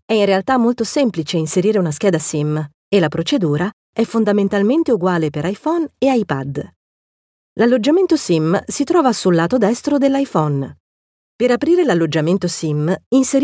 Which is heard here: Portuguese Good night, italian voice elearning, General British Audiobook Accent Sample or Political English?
italian voice elearning